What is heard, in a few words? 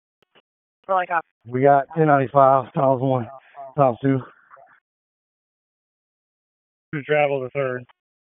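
Raleigh Police Northeast District Dispatch; wake p25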